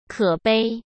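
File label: "可悲", Mandarin Chinese; chinese flashcards; hsk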